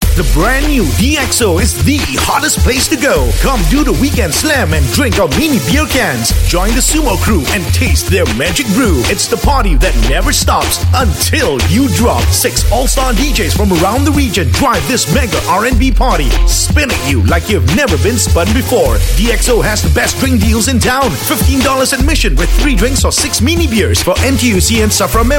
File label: Asian English; DXO-Weekend Slam